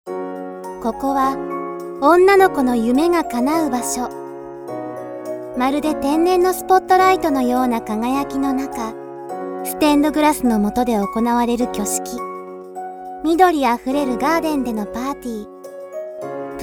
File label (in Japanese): 結婚式場ＣＭナレーション